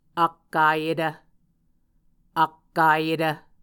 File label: "akkayetv", Muscogee; Mvskoke Words with Repeated Consonants; Onvkv Ohhvyayices; A Dictionary of Creek/Muskogee; SIL International